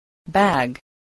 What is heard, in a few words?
"bag", english